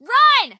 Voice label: English Voice End